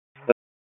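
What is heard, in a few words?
Police Northeast District Disp, radio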